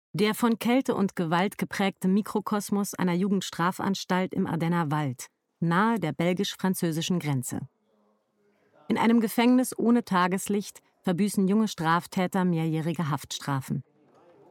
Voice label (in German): Dokumentation; Real